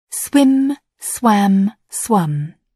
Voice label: "swim", English